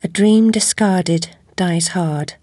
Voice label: sorry, voice commands; svencoop; Alice